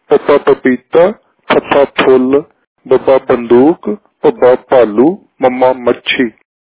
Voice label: Punjabi Muharni Labials

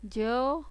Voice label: "yɔ", Khmer

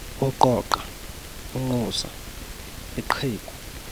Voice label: Sesotho cons clicks

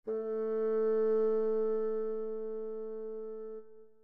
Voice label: tired

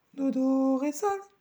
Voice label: "Dod'oresol", solresol

